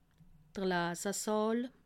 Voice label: "lasesaal", alsace

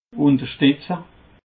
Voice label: "understetze", alsace

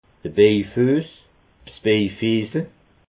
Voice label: "bejfuess", alsace

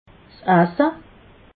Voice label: "asse", alsace

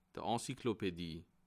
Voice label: "encyclopedie", alsace

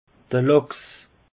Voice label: "lachs", alsace; peche

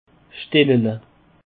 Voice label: "stellele", Alsace

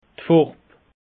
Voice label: "farb", alsace; peche

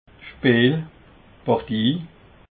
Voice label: "speel", alsace

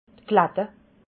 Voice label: "klatte", alsace

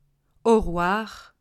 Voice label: "oruar", alsace

